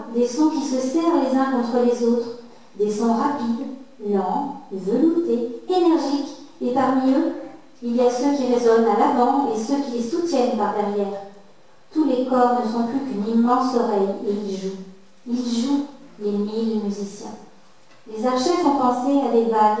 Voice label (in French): 1000 violoncelles, récitante; 1000 vents